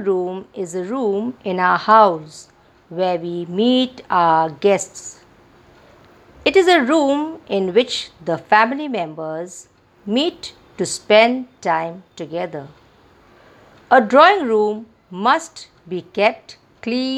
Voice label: Conversation